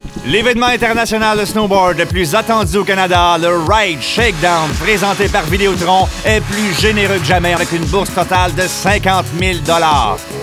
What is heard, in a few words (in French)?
pub radio; shakedown 2012